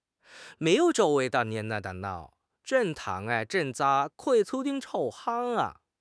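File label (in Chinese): "茫然", Chinese; HSK6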